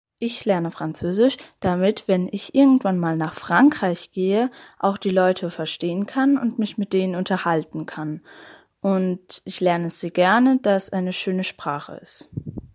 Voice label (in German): franzoesisch